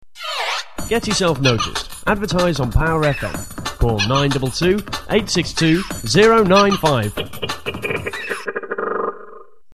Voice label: ads; advertise on pfm